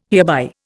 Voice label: "hereby", Indian